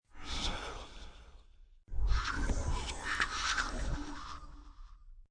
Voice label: Whisper Scary, Whispering, processed with effects, echo, demonic (human voice); Sound Effects:Voice; VoiceBox